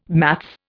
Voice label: "maths", Indian